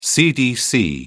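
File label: "cdc", British English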